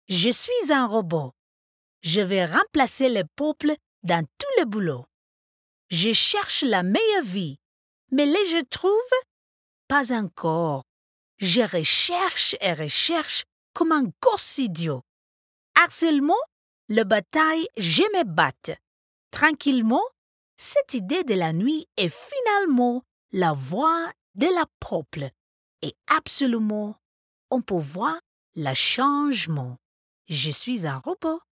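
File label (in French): French, female, character; voice demos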